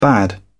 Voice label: "bad", English